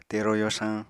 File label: "tero yorsañ", Apatani